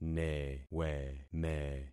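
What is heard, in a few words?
"neweme", concatenated syllables; Affixing experiment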